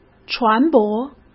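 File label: "船舶", Mandarin Chinese; chinese-flashcards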